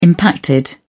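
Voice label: "impacted", British English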